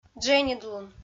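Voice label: "дженьыдлун", Komi, female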